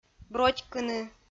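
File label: "бротькыны", Komi-Zyrian, female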